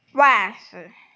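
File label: "wa4zi5", Mandarin Chinese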